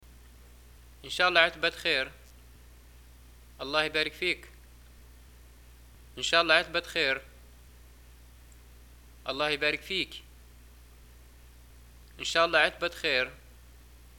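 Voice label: greeting buy new house, Libyan Arabic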